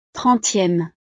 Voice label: "trentieme", frances

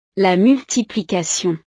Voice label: "la multiplication", frances

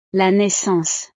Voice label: "la naissance", frances; navidad

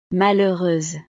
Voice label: "malheureuse", frances; estados animo

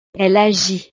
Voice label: "elle agit", frances

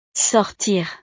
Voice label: "sortir", frances

